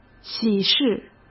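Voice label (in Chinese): "喜事", Mandarin Chinese; hsk; chinese-flashcards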